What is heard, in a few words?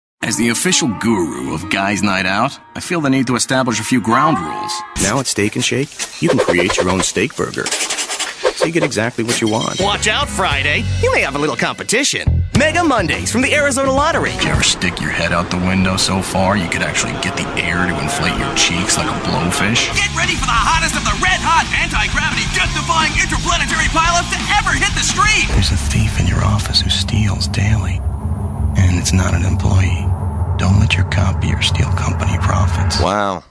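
commercial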